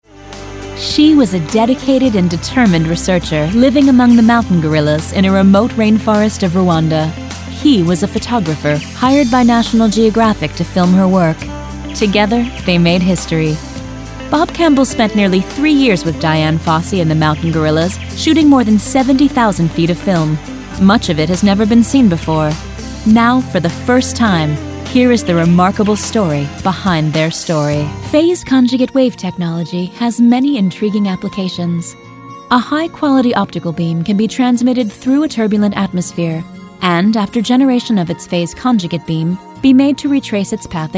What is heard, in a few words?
narr